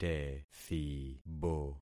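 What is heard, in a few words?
"tethibo", concatenated syllables; Affixing experiment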